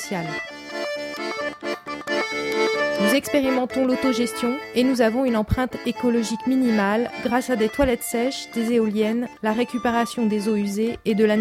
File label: camp message radio